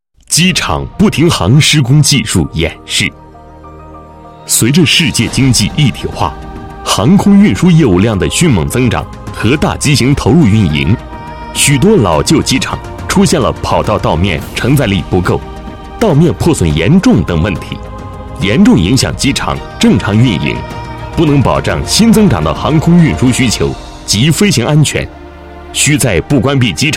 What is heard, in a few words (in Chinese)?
男 企业 机场不停航施工技术演示专题录音